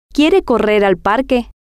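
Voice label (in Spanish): quiere Correr Parque, spanish, female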